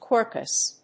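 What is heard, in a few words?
"Quercus", English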